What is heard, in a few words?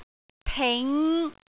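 "ting5", Cantonese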